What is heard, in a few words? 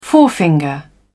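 "forefinger", en, uk British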